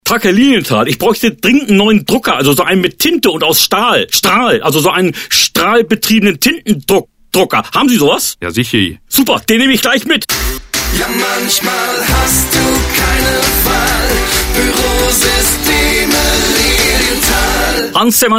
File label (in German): Spot